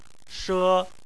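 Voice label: "she" tone 1, Chinese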